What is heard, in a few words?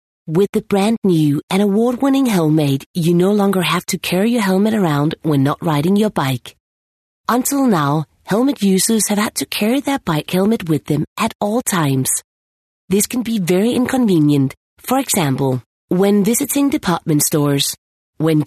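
Danish, female, English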